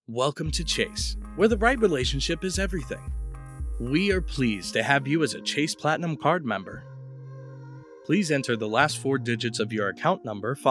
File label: Phone IVR; Chase